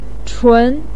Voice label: "chun2", Chinese pinyin voice